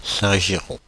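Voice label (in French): "Saint-Girons", French, Paris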